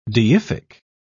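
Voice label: "deific", British English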